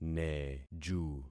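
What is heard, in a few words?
"neju", concatenated syllables; Affixing experiment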